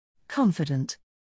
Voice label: "confident"